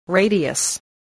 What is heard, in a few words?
"radius", english